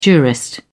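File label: "jurist", British English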